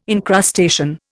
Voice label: "encrustation", Indian